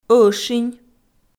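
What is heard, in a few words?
"ӧшинь", Komi, female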